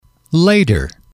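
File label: "later", English, male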